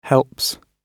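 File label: "helps", British English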